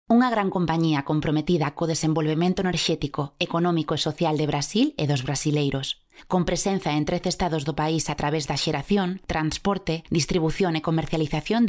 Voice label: locutora gallega galician voiceover; locutora de Galicia

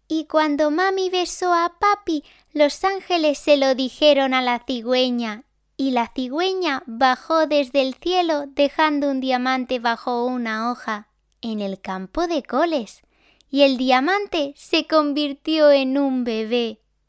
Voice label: locutora infantil voz niña child voice spanish

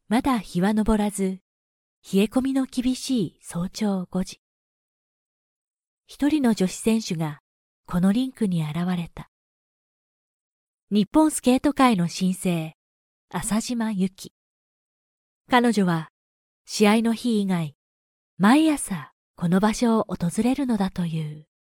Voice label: locutora japonesa japanese voiceover; japanese female voice talent

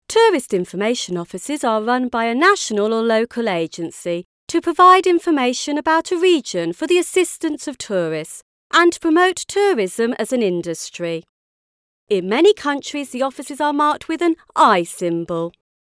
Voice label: locutora inglesa english voiceover

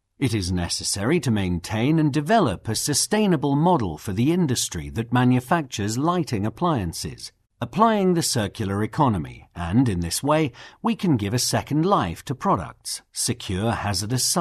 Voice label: locutor ingles english voiceover

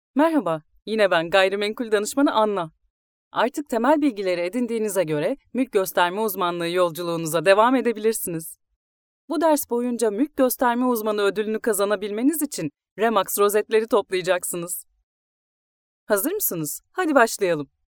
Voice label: locutora turca turkish voiceover

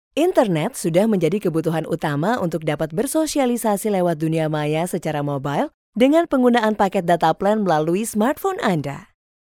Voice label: bahasa female indonesian voice locutora indonesia; indonesian female voice talent

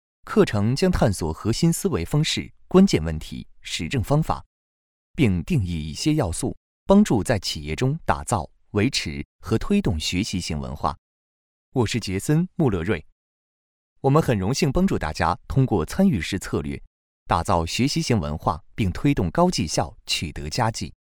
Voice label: locutor chino chinese voiceover

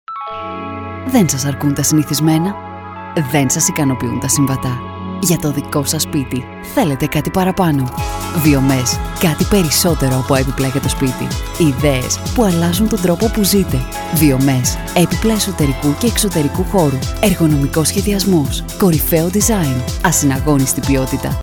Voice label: locutora griega, greek voiceover

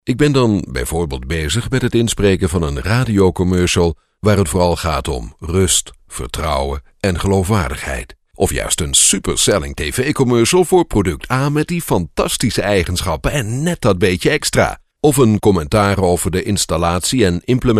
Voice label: locutor holandes dutch voice over

